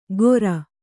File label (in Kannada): "ಗೊರ", Kannada